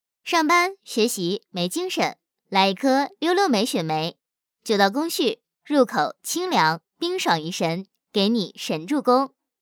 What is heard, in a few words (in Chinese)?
女 模仿; 杨幂; 溜溜梅